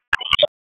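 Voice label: Raleigh Police North District Tactical; wake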